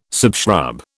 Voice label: "subshrub", British

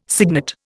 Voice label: "cygnet", Indian

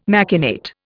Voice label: "machinate", Indian

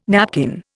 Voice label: "napkin", Indian

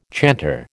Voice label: "chanter", American

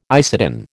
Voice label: "isatin", American

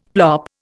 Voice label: "plop", Indian; Dictionary

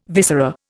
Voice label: "viscera", Indian

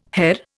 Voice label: "Herr", Indian

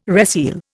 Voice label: "reseal", Indian

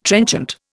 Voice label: "trenchant", Indian

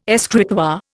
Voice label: "escritoire", Indian

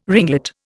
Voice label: "ringlet", Indian

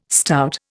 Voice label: "stout", Indian

